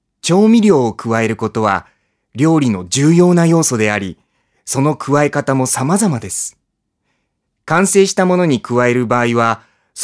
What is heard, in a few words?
male voice; ナレーション